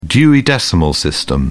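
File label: "dewey decimal system", British English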